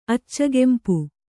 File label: "ಅಚ್ಚಗೆಂಪು", kannada